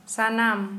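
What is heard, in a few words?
"all", Santali, female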